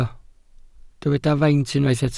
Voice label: "faint", Welsh

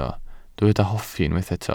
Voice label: "hoffi", Welsh

